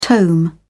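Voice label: "tome", British English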